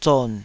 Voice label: "zon3", taiwanese